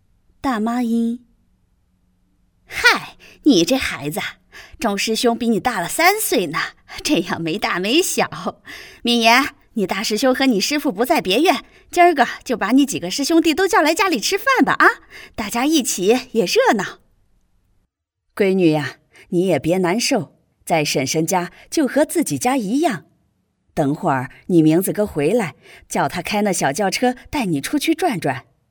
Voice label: B女 角色音 大妈

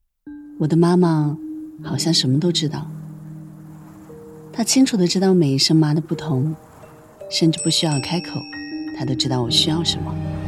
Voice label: 女 广告 自然温情讲述 母亲节; 京东

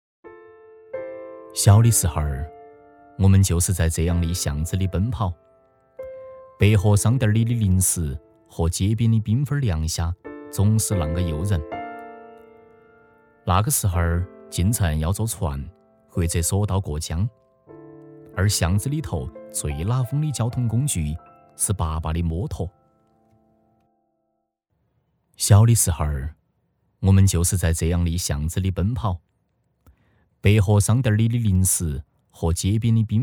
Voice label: 四川方言 重庆记忆

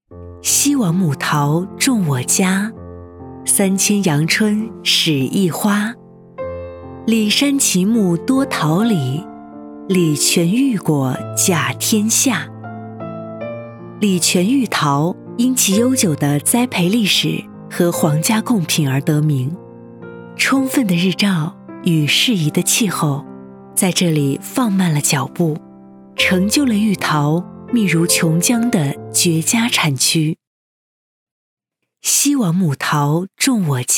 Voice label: 女 宣传片 食品宣传

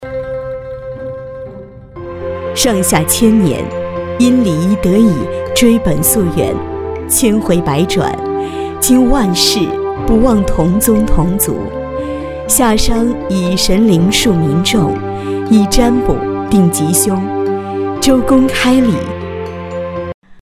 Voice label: 女 宣传; 巍巍华夏

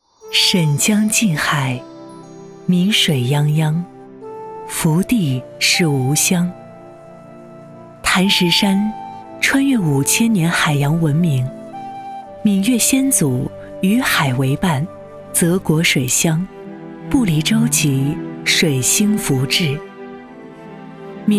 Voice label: 女 专题片

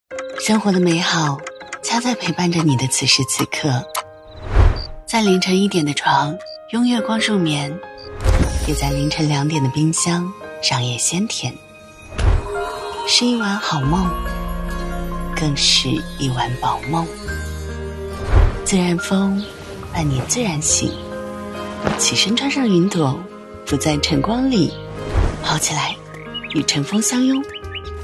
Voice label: 女, 广告 电商; 抖音电商618

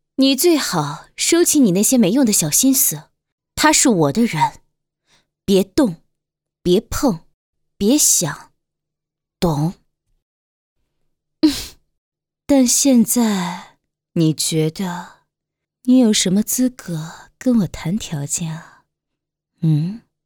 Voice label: 女, 御姐音

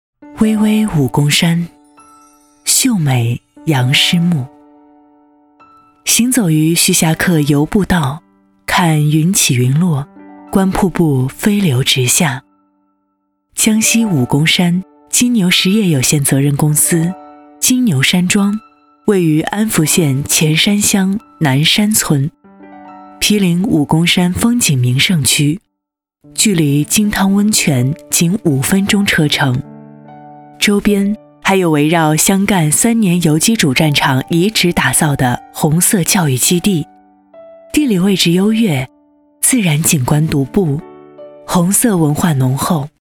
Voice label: 女 专题片; 金牛

